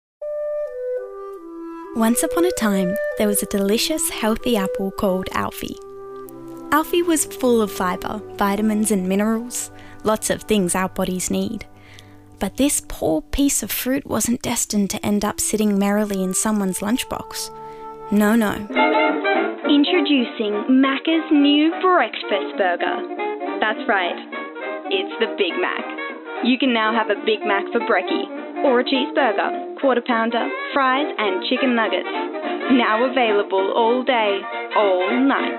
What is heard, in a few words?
Voice Over